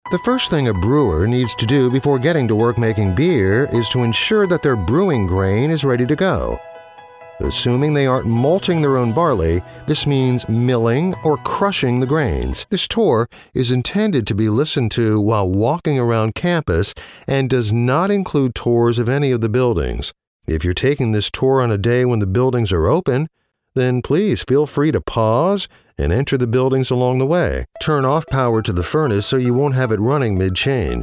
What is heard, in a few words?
e-Learning